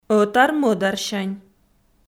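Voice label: "ӧтар-мӧдарсянь" Komi, female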